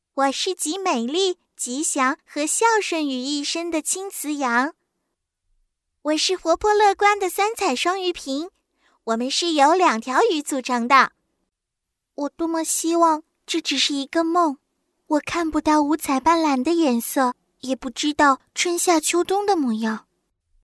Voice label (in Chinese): 女 中文童声 女童; 方言